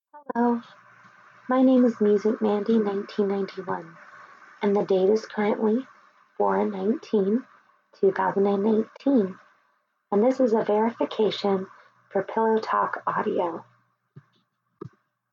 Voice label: Verfication, F